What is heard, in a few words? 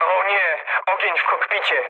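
on fire, mood med, Polish speech; War Thunder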